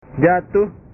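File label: "jatuh", Indonesian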